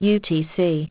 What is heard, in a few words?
utc aus, aussie prompts